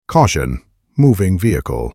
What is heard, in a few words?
Voice Alarm EN; blaxtair solutions